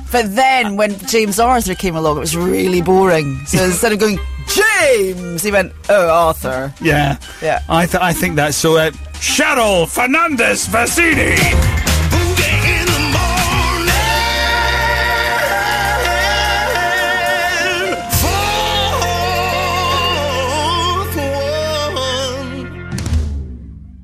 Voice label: x factor voice over; for cheryl's new surname